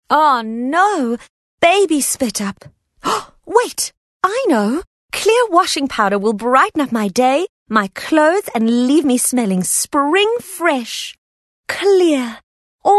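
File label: English Bright South African Neutral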